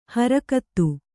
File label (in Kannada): "ಹರಕತ್ತು", Kannada